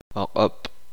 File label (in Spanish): uspanteko noche